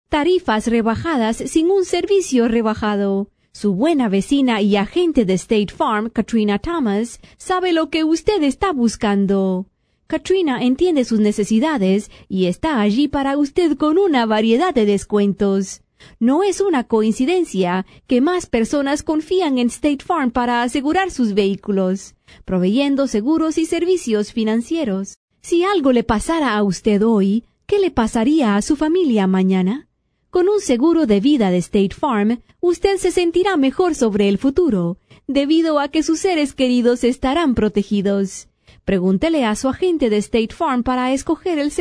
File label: Spanish